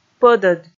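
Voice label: "пӧдӧд", Komi, female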